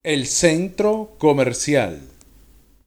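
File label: "EL CENTRO COMERCIAL", Spanish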